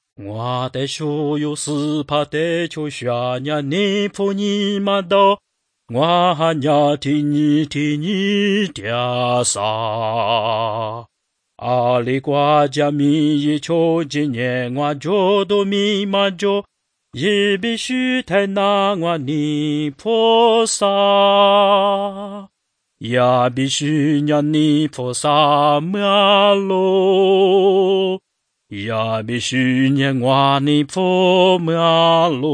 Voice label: words of life lisu southern